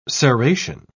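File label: "serration", British English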